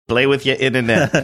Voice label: funny; play with your internet

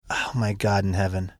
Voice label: whispering

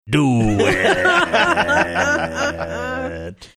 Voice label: drawn out do it

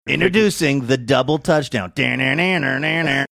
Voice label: saying introducing double touchdown singing hook

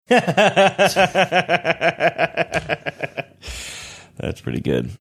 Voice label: laughing saying thats pretty good

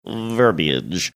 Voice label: saying verbiage funny manner

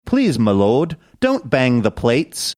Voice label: saying please milord dont bang the plates british

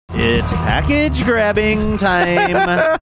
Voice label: sing songy; it's package grabbing time

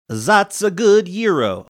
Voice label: zat's a good gyro, faux italian

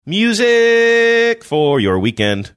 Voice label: sing songy manner; music for your weekend

